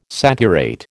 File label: "saturate", American